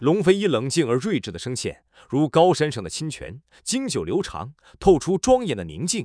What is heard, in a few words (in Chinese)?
诗词朗诵, 热血磁性男; 中、英; longfei v2